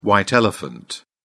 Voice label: "white elephant", uk